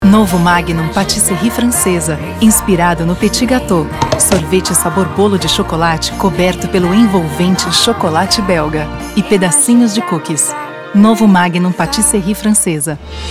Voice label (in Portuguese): EMOCIONAL